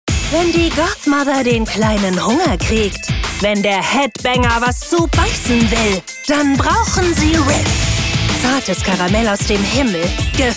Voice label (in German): Werbung rough; Schokoriegel